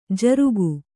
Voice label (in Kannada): "ಝುರುಕಿ", Kannada